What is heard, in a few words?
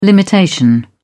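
"limitation", British English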